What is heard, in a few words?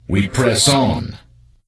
NPC Death Knight Troll Male Greeting Free